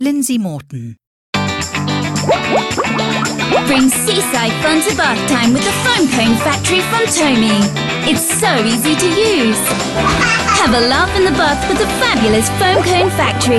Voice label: uk